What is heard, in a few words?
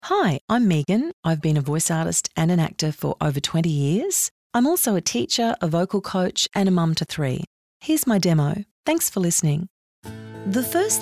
Narration